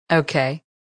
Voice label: "Okay" Female, exclamation

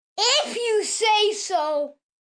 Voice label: If You Say So, Attitude, 1 boy 8 yrs

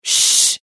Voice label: "shh", female, whispering

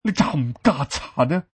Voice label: Asian Thug, Asian male thug saying; Attitude; Voice

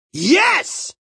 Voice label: "Yes" Male; Exclamation; Voice Box

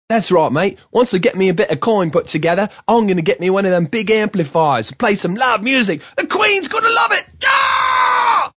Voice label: Cockney Rocker, Male; Talking Sound Effects; Sound Effects:Voice; VoiceBox

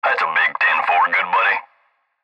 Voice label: Cb Trucker Radio; Radio Voice; Industry; Blastwave

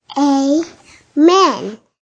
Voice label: Toddler Says Amen, Prayer said by toddler; Children

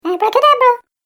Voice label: Cartoon Game Voice "Abracadabra"; Cartoon Voice Sound Effects

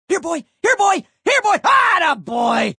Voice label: Here Boy Atta Boy, Male; Talking Sound Effects

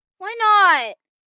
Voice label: Whine What Draw, 1 boy, 7 yrs; Whining; Voice Box

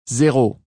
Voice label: "Zero", French, Male voice; Talking Sound Effects; Numbers

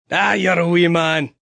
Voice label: You're A Wee Man, Male; Talking

